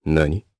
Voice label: Vox, jp; Riheet; kingsraid